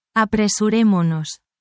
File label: spanish, spanish phrases